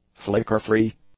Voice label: "flickerfree", english; adjectives